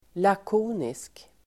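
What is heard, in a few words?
"lakonisk", Swedish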